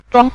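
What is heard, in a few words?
"zhuang", Mandarin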